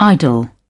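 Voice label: "idol", British English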